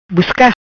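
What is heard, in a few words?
"Busca", patua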